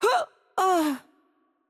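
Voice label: Greek hurt heavy voice; bloom minigame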